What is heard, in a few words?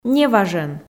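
"неважӧн", Komi, female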